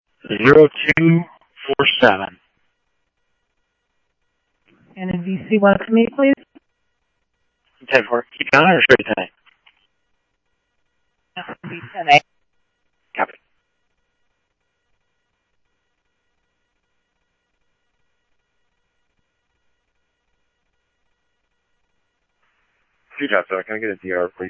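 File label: Simulcast police department, scanner audio trunk; Mesa Simulcast; MESA PD 3 Red Mt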